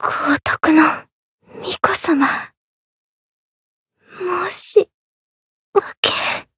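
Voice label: retire, Japanese; flammelapis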